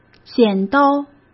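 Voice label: "剪刀", Mandarin; chinese-flashcards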